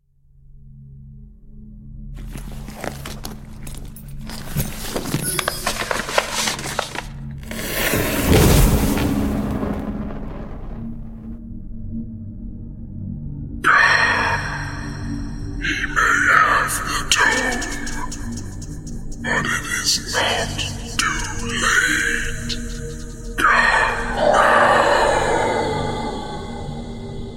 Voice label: Whisper Come; SyrinScape; Library Depths